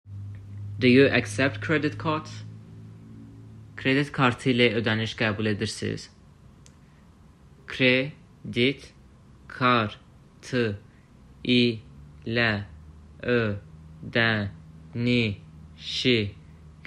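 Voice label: Do you accept credit cards? Kredit kartı ilə ödənişi qəbul edirsiz?, azerbaijani; phrasebooks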